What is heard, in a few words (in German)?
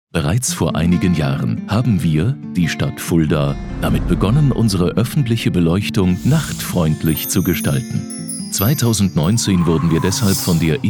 Imagefilme